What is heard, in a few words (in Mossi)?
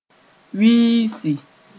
"wiisi", Moore